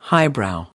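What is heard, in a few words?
"highbrow", American English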